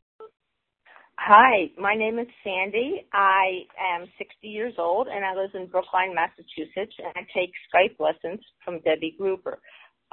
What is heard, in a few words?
testimonial